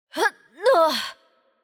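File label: Hurt Heavy, Chinese; bloom minigame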